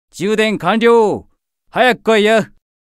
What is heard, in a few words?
AP Notification Voice; Mammon